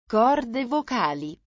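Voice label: "corde vocali", Italian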